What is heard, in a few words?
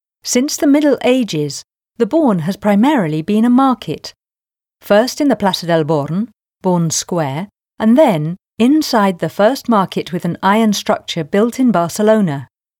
informative, ENGLISH UK, FEMALE